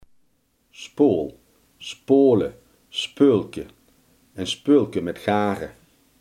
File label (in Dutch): "spoel", Limburgs